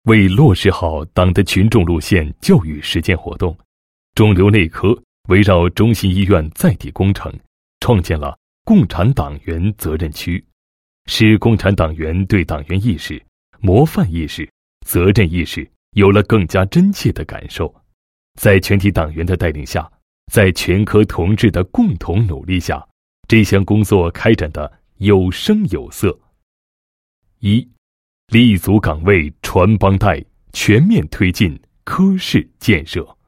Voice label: 男 医院形象宣传; 内科共产党员责任区先进事迹

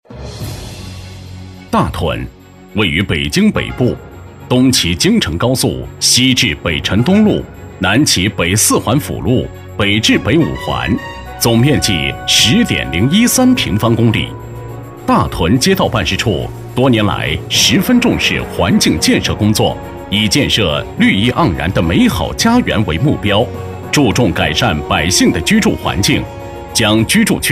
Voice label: 男25, 国语男声配音; 北京规划

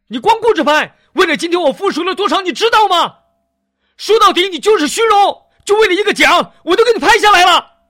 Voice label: 男 模仿, 年轻浑厚; 国语男声配音; 徐峥

